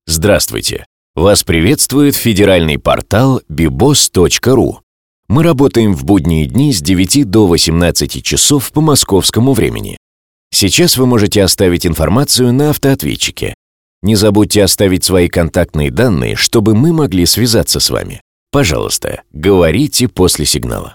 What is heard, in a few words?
M IVR